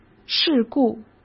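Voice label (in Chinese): "事故", Mandarin Chinese; hsk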